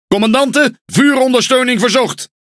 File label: Speech Quick Commands Enemy Ship, NL; World Of Warships